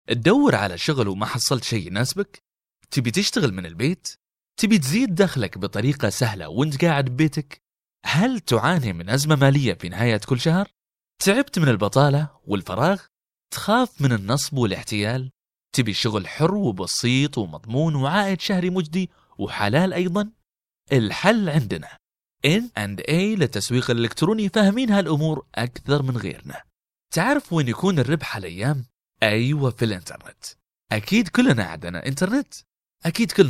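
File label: arabic male narration; voice demos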